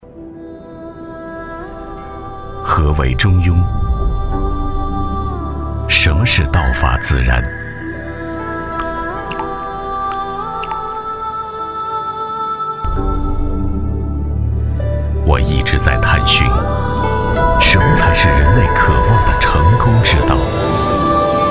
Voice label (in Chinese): 男 专题 人物解说 宣传片